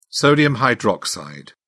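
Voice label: "sodium hydroxide", British English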